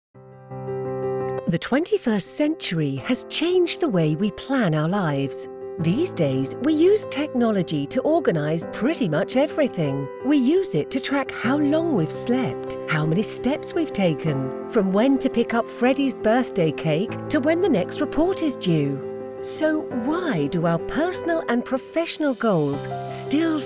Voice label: explainer confident dynamic inspiring; life planner app